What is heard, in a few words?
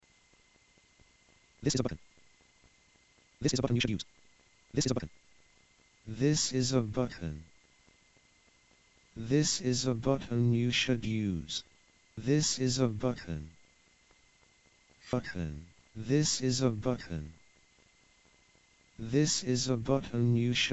Narrator